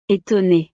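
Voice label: "etonnee", French; estados animo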